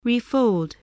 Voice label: "refold", spelling voice